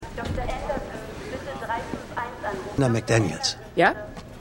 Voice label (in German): Krankenhaus Durchsage